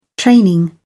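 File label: "training", British English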